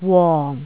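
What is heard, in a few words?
"wong4", Cantonese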